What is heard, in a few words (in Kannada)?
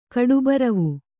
"ಕಡುಬರವು", Kannada